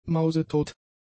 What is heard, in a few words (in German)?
"mausetot", German; adjectives